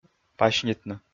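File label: "пасьнитны", Komi, male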